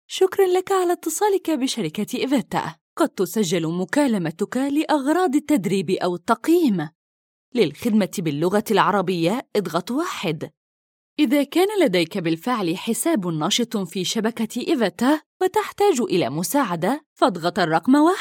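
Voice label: Arabic IVR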